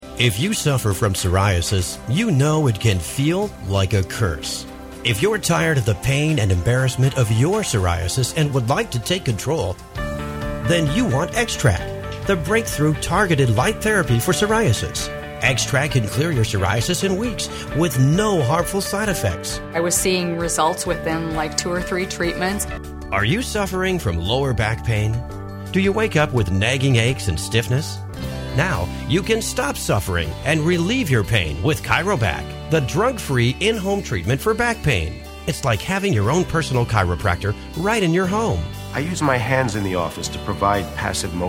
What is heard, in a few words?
Medical VOs